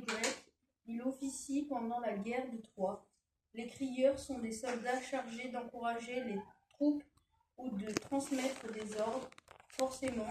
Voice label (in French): Une voix de stentor